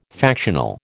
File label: "factional", American